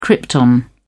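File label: "krypton", British English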